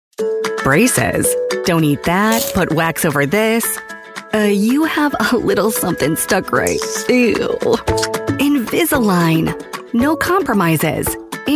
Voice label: American voice commercial

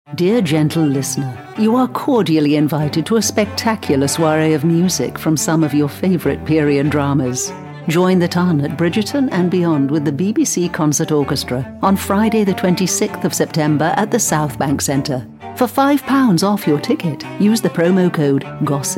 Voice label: theatre commercial; southbank

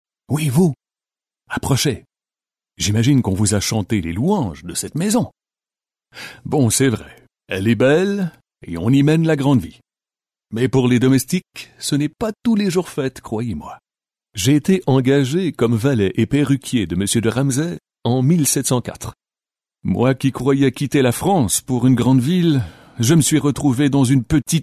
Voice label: acting, Dramatic French Canadian Male Voice

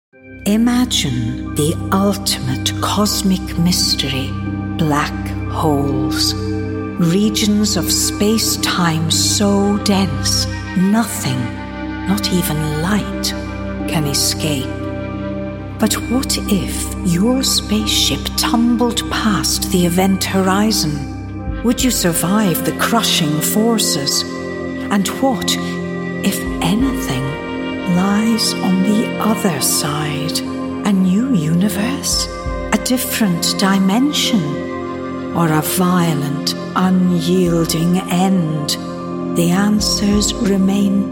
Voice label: mature scottish documentary

